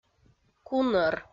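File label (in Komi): "кунӧр", Komi-Zyrian, female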